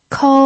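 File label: "kou", first tone, Mandarin Chinese pinyin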